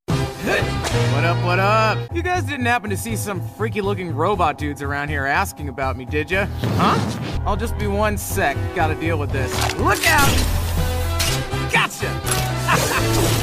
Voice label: Badass husky female fighter cool cartoon androgynous gender neut, Animation Voice Over; gender neutral; thundercats